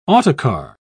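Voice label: "autocar", British English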